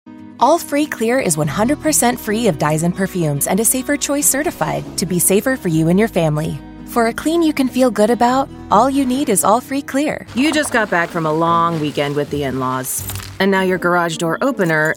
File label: commercial